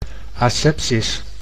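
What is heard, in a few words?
"asepsis", Dutch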